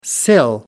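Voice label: "sill", British English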